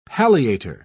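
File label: "palliator", British English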